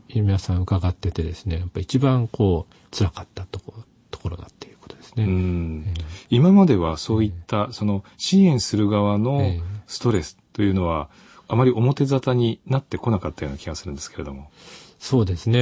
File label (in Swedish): Japanska